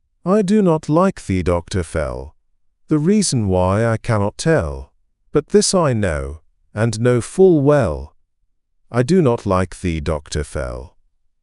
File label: male brit; I Do Not Like Thee Doctor Fell